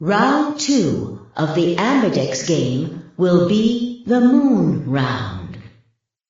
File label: announcer moon round